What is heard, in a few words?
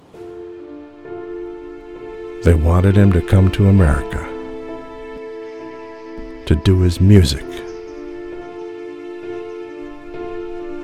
Radio Commercial – Musical Theatre; Dreamchasers